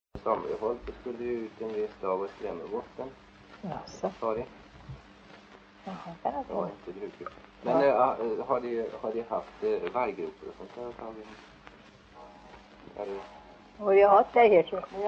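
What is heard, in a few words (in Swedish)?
Järnskog Värmland, dialekter; Folkminnen; Svenska sagor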